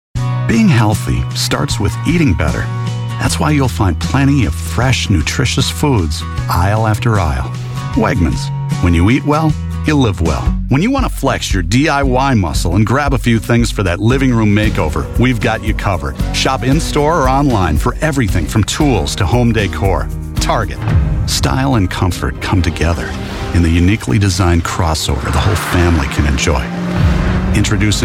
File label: Commercials